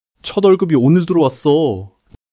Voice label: excitement